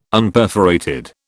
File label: Unperforated, British